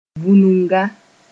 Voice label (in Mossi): "vũnunga", moore; deep